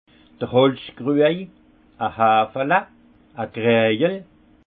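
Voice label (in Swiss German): "holz kruej", Haut Rhin; vin; broc en bois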